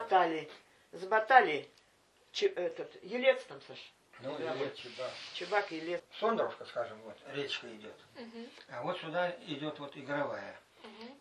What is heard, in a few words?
middle ob dialect; ботать; Ударять по воде ботом (особым приспособлением для рыбной ловли).; А рыбу я не пугал – не ботал значит.; д. Гынгазово, 1974; Чалдоночка в чирочках: как это по-русски